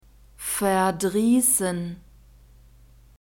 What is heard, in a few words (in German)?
"verdriessen", German; verbs present tense irregular